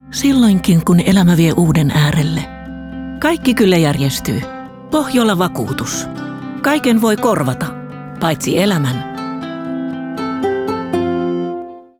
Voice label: radio; pohjola; radio master